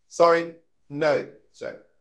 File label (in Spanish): Voice Acting es M